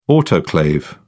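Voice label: "autoclave", British English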